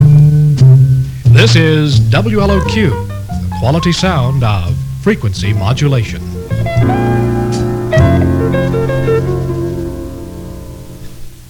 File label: Quality Sound of Frequency Modulation; 103.1 WLOQ-FM